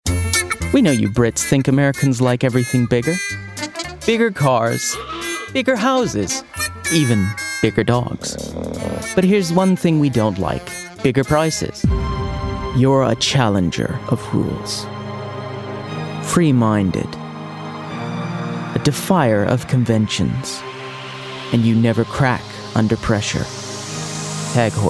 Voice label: Commercial